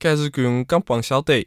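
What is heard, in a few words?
wenzhou, Chinese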